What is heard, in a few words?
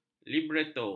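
"libreto", Malay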